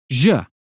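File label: British phonetics sound vision; 英语音标发音（剑桥版）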